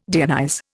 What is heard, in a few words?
"deionize", Indian